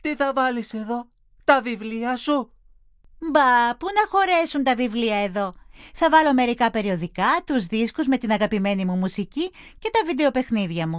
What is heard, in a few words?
dialog